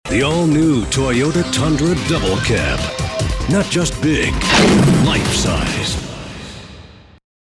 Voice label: tough gritty